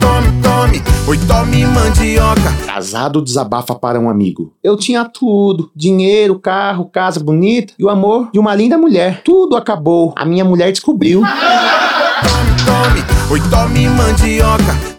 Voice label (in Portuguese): spot piada; rei da mandioca